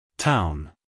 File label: "town" us male; everyone-can-use-english